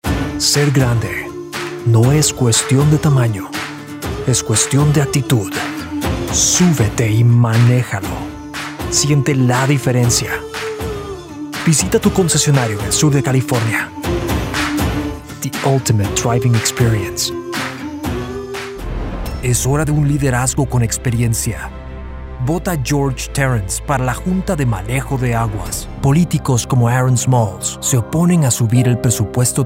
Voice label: comercial Spanish

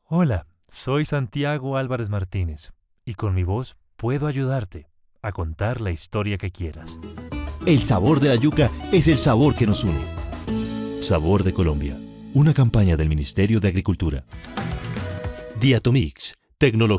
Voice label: Español Comercial

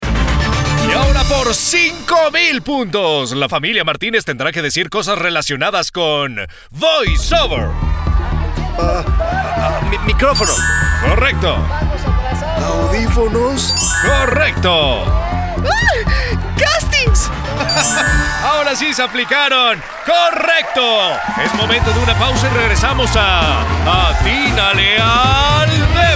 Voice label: COMERCIAL

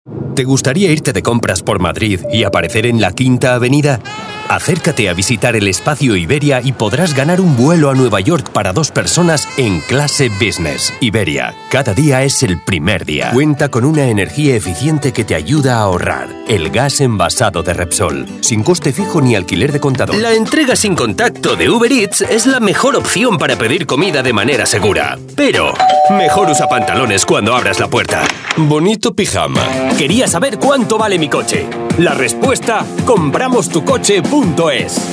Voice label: DINAMICO

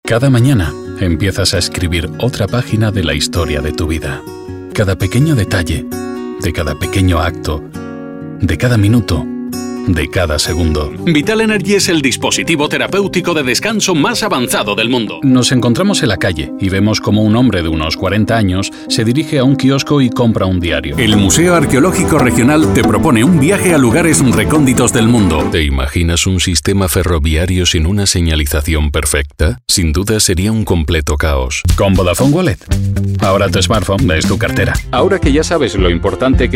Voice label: mix castellano

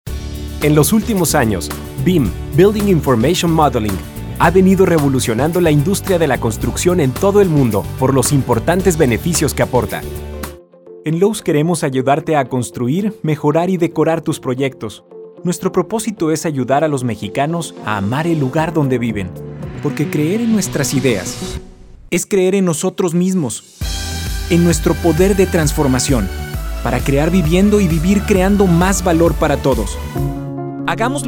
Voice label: Narracion Videos

